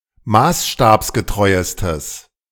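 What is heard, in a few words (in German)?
"maßstabsgetreuestes", German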